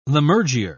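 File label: "lammergeyer", British English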